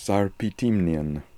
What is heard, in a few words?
"Sarpitimnian", pronounciations; Fourth Way; Beelzebub